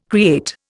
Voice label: "create", Indian